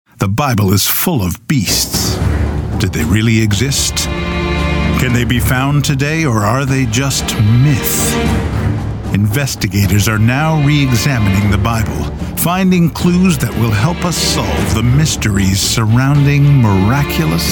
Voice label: Narration; TaDa

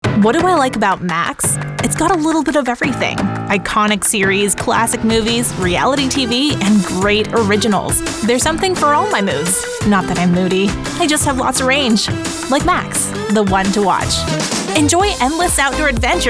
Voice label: Commercial; TaDa

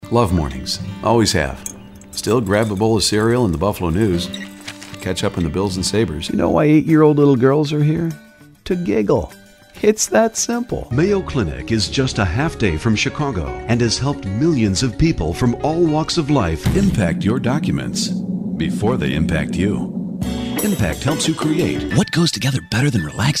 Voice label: Commercial; TaDa

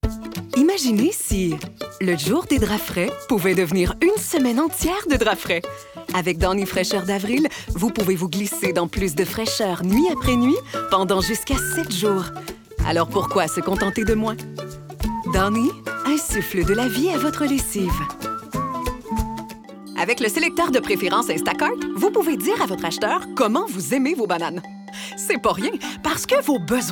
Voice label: fr commercial

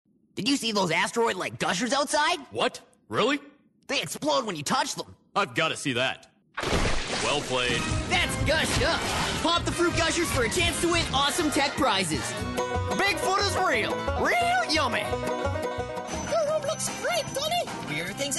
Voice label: Character; TaDa

